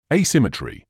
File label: "asymmetry", medical english uk